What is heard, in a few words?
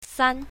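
"san1", pinyin, south, female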